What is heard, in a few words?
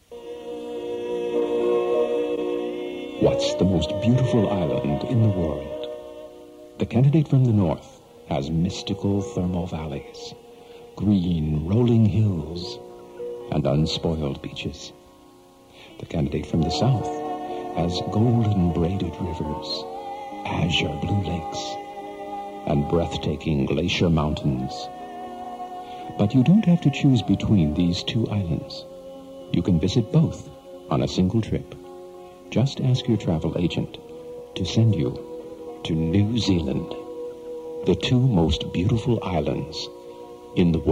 voice Over